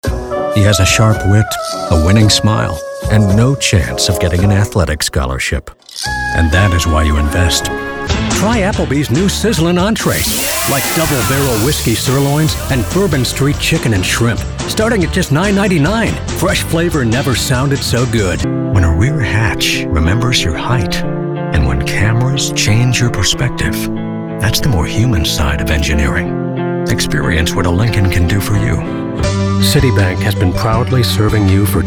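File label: commercial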